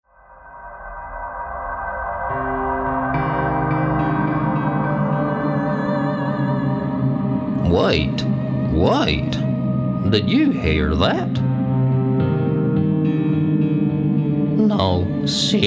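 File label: voice female forest fantasy; character performance voice test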